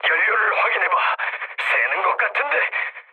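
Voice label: Korean tank damaged mood low, speech; War Thunder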